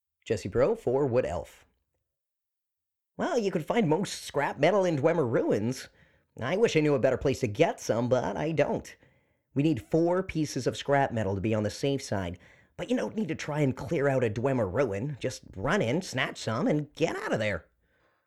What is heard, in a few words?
bosmer, wood elf voice auditions